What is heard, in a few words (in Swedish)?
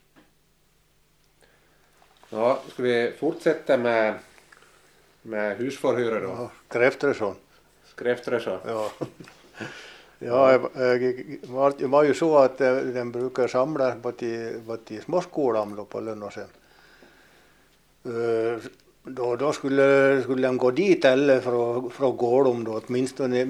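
Boda Dalarna, dialekter; Folkminnen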